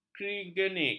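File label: "kriogenik", Malay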